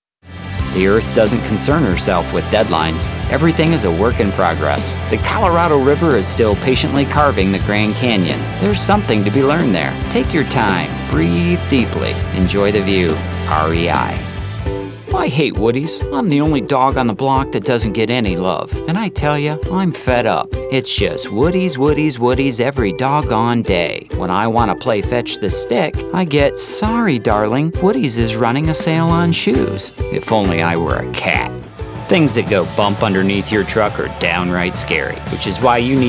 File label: VO Commercial